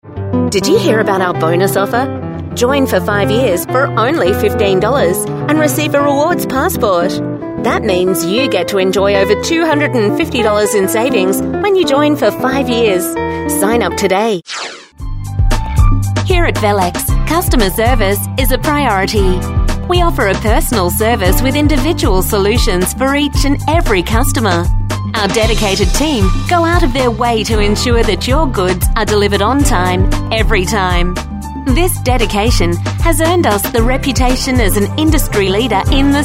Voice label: on hold